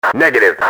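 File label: negative, radio, male; XBOX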